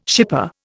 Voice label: "shipper", Indian